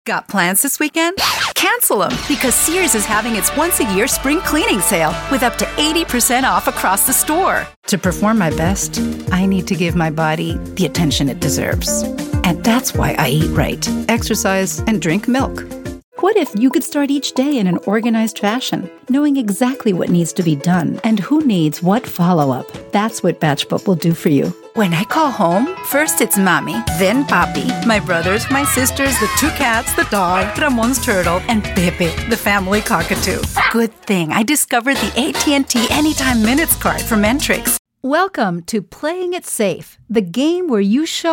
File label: bilingual spanish english female english